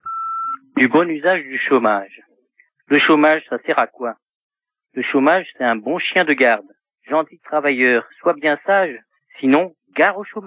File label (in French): Chomage repondeur